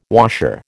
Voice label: "washer", American